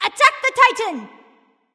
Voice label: vox attack m; smite; athena